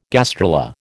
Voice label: "gastrula", American

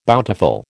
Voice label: "bountiful", American

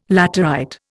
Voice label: "laterite", Indian

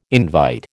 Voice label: "invite", American

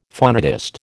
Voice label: "phonetist", American

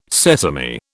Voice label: "sesame", British

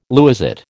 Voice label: "lewisite", American

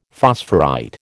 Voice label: "phosphorite", American

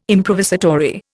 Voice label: "improvisatory", Indian

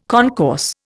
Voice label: "concourse", Indian

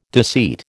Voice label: "deceit", American

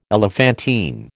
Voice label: "elephantine", American

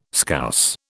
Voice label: "Scouse", British

